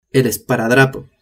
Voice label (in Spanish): "esparadrapo" spanish